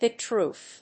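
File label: "HIPPED ROOF", English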